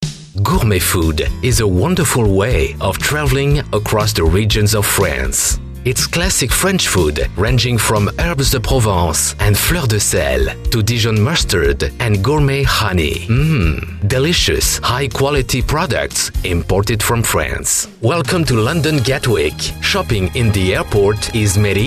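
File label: English voice overs; English (North American)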